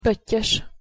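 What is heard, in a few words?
"pottyos", Hungarian pronunciation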